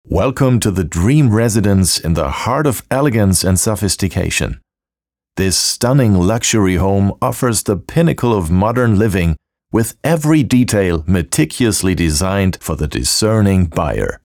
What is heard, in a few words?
english real estate; actor de doblaje profesional